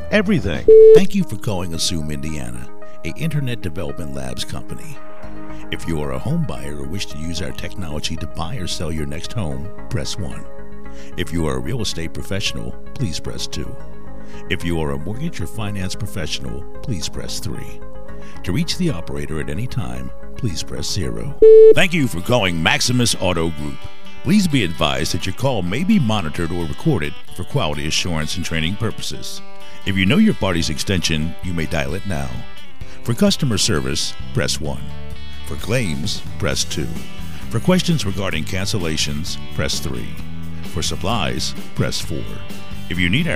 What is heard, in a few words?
on hold